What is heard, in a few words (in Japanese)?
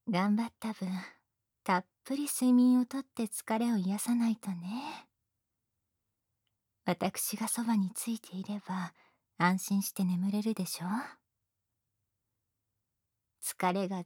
おやすみボイス; クローディ